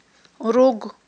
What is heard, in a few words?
"руг", Aghul